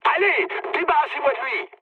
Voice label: aircraft damaged mood med, speech fr; War Thunder